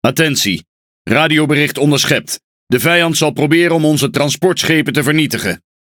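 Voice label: NL MESSAGE ENEMY DAMAGE TRANSPORT START, Dutch; PVE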